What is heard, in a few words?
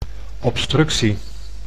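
"obstructie", Dutch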